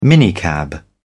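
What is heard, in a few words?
"minicab", British English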